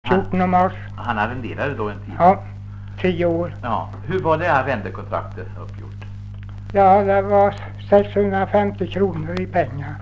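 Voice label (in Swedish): Visnums-Kil Värmland, dialekter; Folkminnen; Svenska sagor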